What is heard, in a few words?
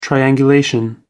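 "triangulation", British English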